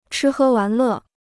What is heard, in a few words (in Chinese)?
"吃喝玩乐", Chinese